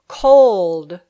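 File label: "cold", English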